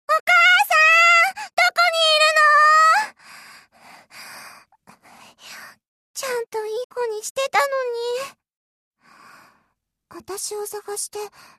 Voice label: young girl